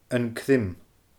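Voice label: "An Criom", Scottish Gaelic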